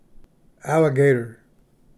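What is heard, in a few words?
"alligator", English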